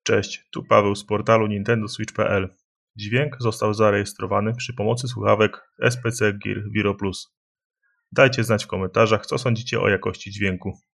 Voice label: voice recorder